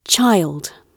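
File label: "child", British English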